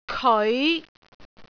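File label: "keoi5", Cantonese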